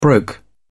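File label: "broke", British English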